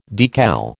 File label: "decal", American